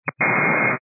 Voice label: 122 925MHz, scanner radio